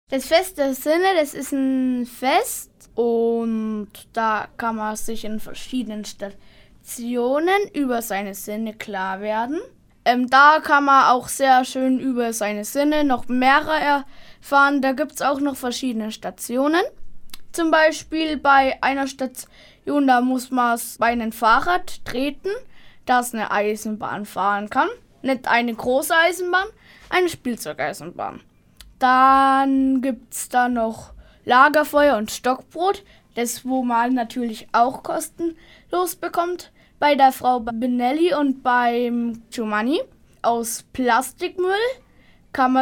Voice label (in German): Ansage